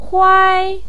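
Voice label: "huai" first tone, Mandarin Chinese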